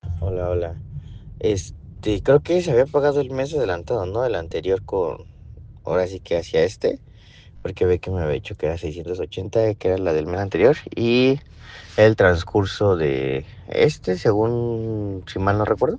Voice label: whatsapp ptt